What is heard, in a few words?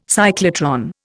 "cyclotron", Indian